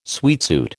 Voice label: "sweatsuit", American

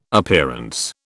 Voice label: "Appearance", British

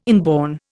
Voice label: "inborn", Indian

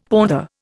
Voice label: "pother", Indian; Dictionary

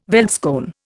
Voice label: "veldskoen", Indian

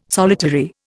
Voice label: "solitary", Indian

